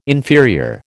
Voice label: "inferior", American

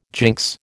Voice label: "jinx", American

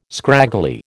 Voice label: "scraggly", American

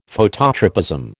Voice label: "Phototropism", American

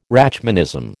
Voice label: "Rachmanism", American

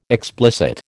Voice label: "explicit", American

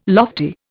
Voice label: "lofty", Indian

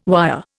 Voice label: "wire", Indian

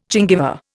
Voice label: "gingiva", Indian

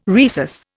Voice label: "rhesus", Indian

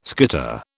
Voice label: "skitter", British

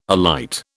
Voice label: "alight", British

